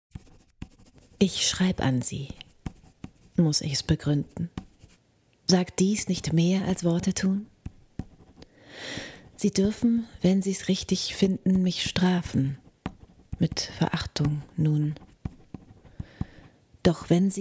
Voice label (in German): hoerspiel; onegin